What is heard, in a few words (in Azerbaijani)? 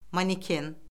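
"maneken", Azerbaijani; Orfoqrafiya Lüğəti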